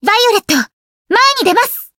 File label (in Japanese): chant jp; violet